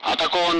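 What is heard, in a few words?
Speech, Russian, loud; Messages; Allied Flight; defending; DCS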